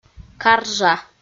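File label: "каржа" Komi, female; kpv audio dict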